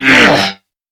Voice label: Threatened Crewmen, Dialog, Barks, Battle; Warframe; CorpusCrewmen; CrewmanA

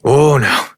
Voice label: Horse Stop Walk Adult; Duviri

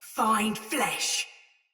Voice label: Attack Normal Soldier Mace Agent Female; Battle; Sinecure Melee

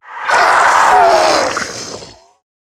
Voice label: Death, en; Zariman Wraith

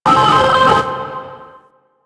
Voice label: Moon Glitched Voice; Warframe; Ambience; Orokin Moon

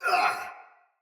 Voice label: Pain Soldier Sword Agent Male; Battle; Sinecure Melee

